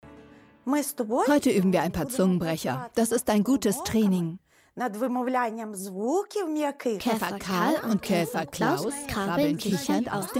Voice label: voice over; kika